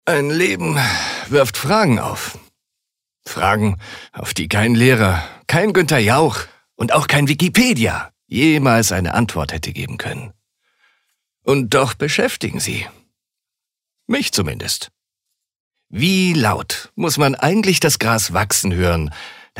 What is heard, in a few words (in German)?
Schauspiel Dynamisch